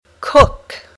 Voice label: "cook", English